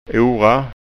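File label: "ora", Scanian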